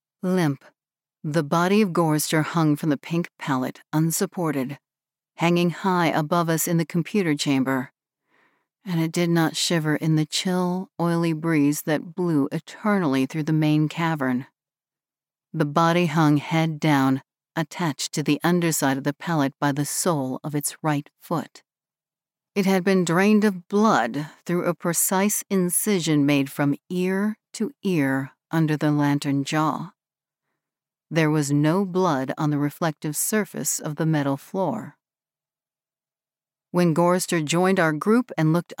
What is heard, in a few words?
pov classic horror